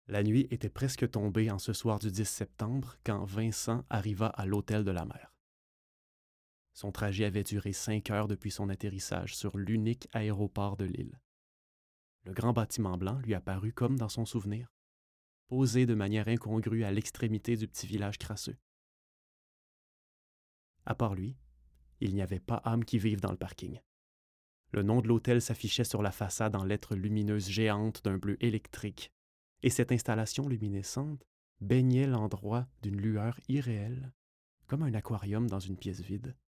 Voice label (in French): narration nouvelle; agence gchampagne